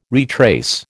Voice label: "retrace", American